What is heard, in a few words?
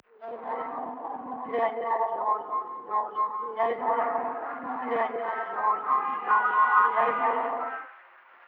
radio filtered voice